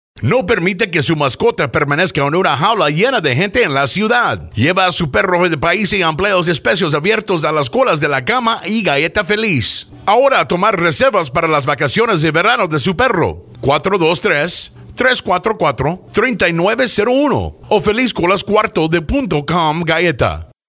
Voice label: spanish